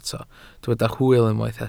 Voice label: "hwyl", Welsh

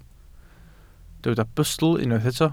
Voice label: "bustl", welsh

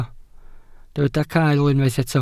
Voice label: "cael", Welsh

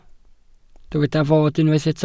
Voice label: "fod", Welsh

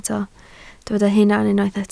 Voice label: "hunan", Welsh

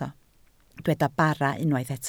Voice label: "bara", Welsh

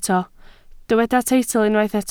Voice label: "teitl", Welsh

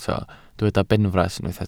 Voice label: "benfras", Welsh

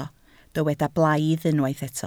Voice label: "blaidd", Welsh

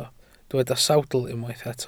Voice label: "sawdl", Welsh

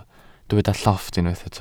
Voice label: "llofft", welsh

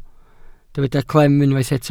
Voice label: "clem", Welsh

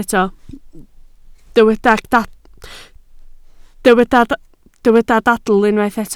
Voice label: "dadl", Welsh

